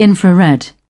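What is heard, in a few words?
"infrared", British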